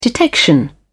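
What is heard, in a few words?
"detection", British English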